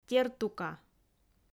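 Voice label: "тертука", Komi, female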